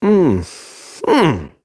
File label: Ambient Barks, en; Warframe; Mall Romance; Quincy